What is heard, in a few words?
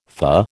"fah", American